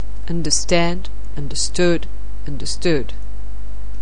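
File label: "understand", English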